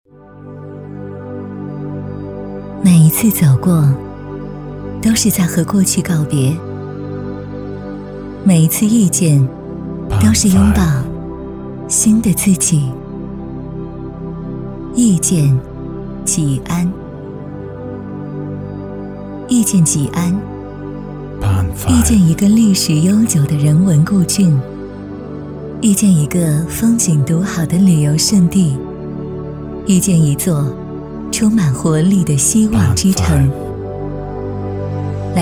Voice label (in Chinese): 女 文旅片; 遇见吉安